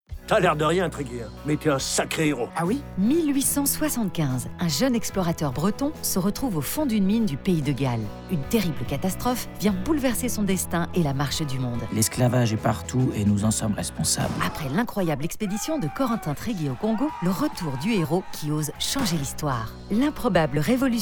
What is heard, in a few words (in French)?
PODCAST, comedienne voix off